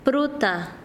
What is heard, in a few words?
"fruta", Quechua Chanka